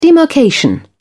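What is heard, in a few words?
"demarcation", British English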